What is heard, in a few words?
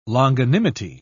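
"longanimity", British English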